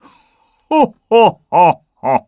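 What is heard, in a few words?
victory, Japanese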